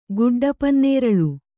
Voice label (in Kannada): "ಗುಡ್ಡಪನ್ನೇರಲು", Kannada